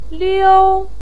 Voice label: "liu1", Chinese pinyin voice

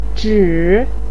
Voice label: "zhǐ", voice pinyin

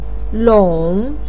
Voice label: "long3", Chinese pinyin voice

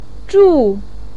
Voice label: "zhù", voice pinyin

